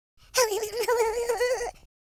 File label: scared, American Wrestler